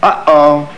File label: uh oh